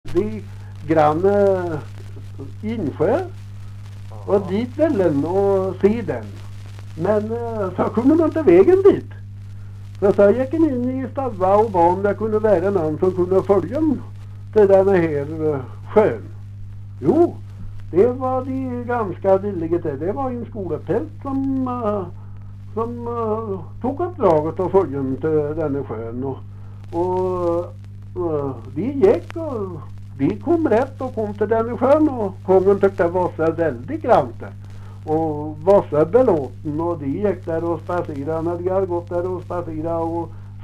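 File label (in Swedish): Lerdal Dalsland, dialekter; Folkminnen; Svenska sagor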